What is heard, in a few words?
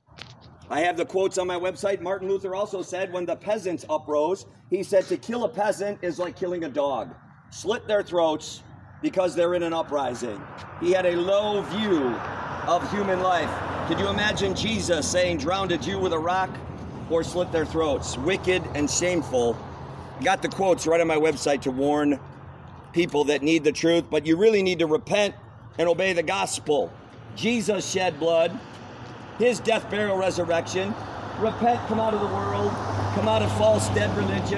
preaching outside lutheran church